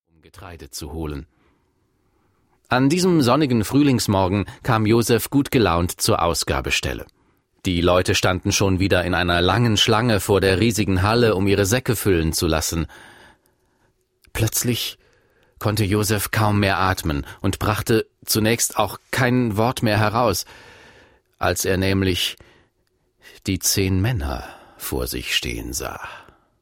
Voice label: Erzaehler

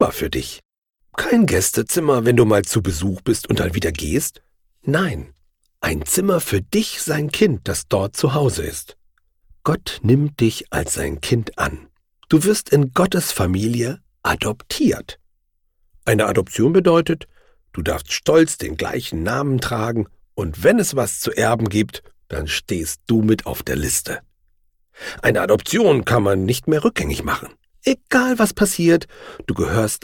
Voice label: Erzaehlung

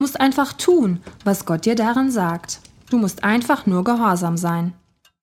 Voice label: Hoerspiel

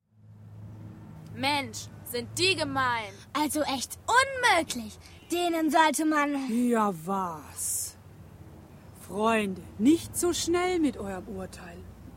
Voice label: Empoerung Sprecherszene Teenies

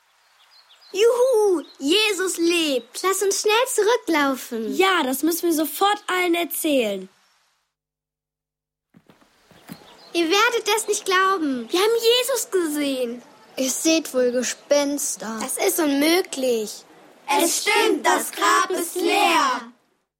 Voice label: Hoerspielszene; Jesus lebt

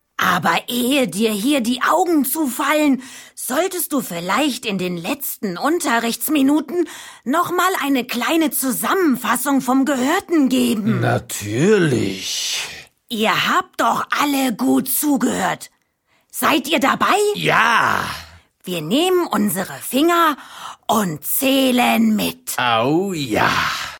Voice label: Erzaehler

